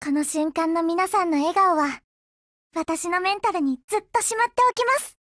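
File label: WIN Japanese, Voice; GF Alarm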